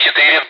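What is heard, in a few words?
Speech, Russian, air traffic control; Digits; DCS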